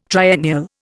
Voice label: "triennial", Indian